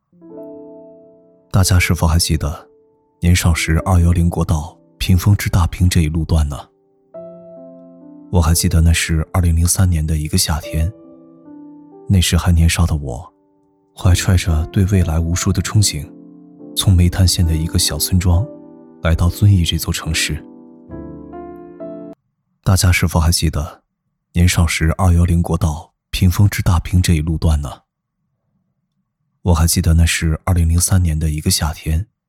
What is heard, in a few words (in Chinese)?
男 微电影旁白; weidianying